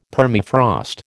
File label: "Permafrost", American